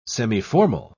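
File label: "semiformal", English, British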